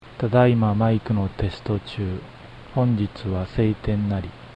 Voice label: Voice Shaper EQ OFF